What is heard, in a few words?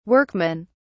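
"workmen", British English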